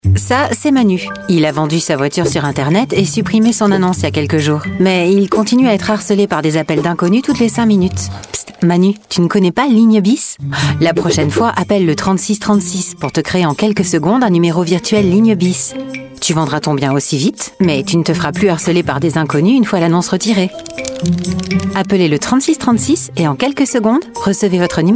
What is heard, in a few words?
french voice over female commercial